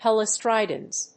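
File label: "Helice tridens", English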